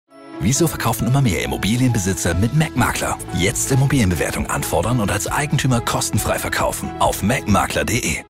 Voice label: tv spot; mcmakler

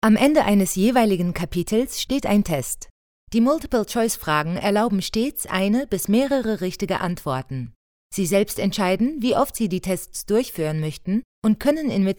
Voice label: de informative compilation

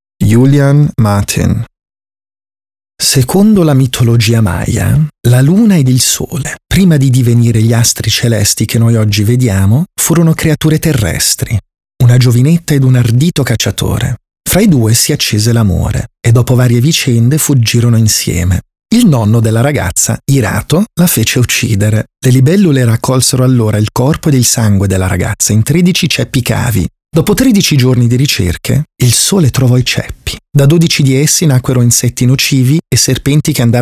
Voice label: narration

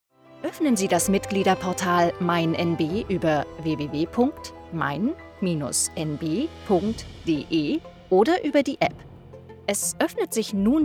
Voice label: e-learning

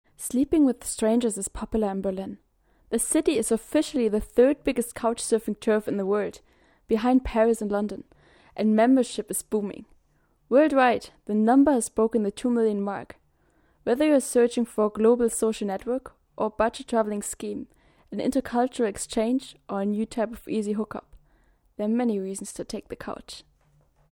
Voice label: voice over

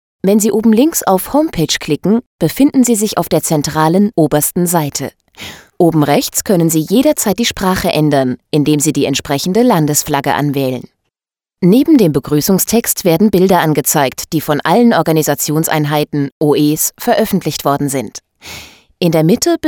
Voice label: e-learning sharepoint

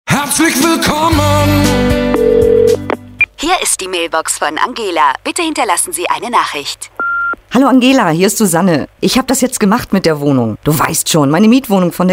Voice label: spot; gewoba

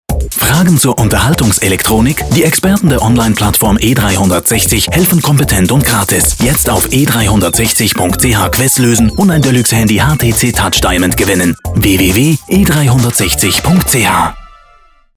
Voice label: promo

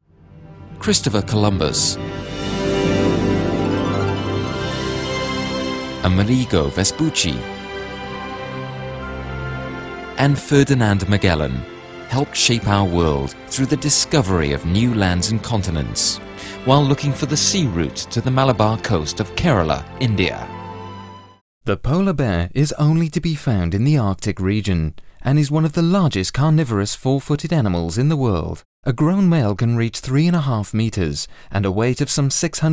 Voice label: documentary

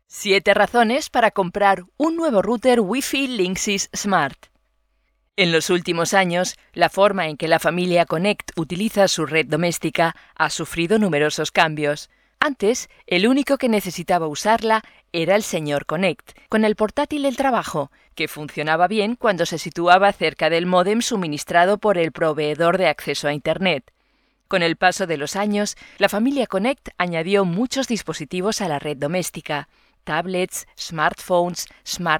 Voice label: female imagefilm; cisco linksys

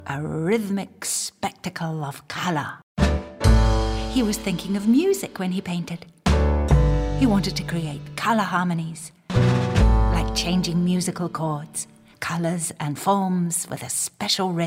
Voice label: children audioguide jazzy

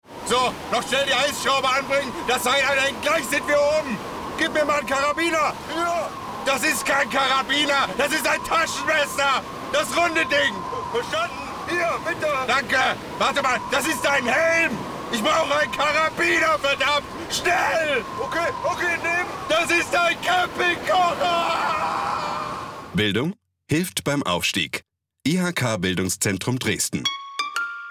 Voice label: radio spot

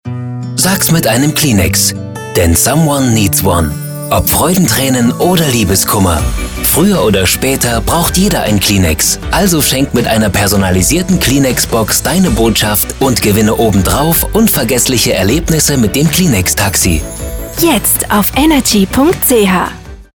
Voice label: radiospot; kleenex

